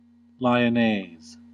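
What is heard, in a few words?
"lyonnaise", US English; pronunciations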